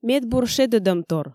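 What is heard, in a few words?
"медбур шедӧдӧмтор", Komi, female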